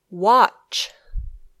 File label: "watch", English